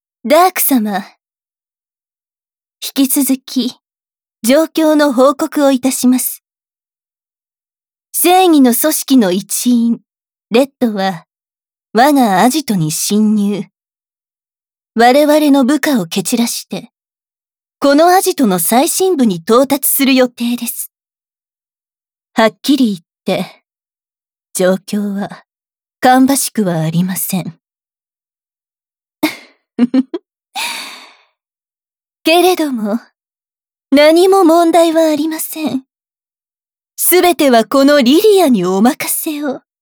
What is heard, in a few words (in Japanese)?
悪の女幹部 voice only; ＳＥなし